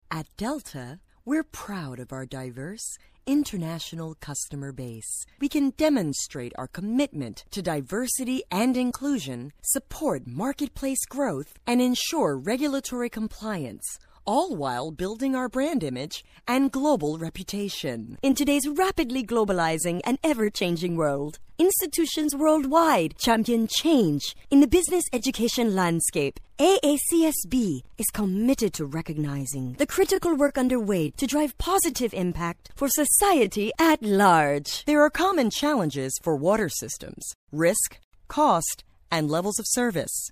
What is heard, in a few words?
English American corporate videos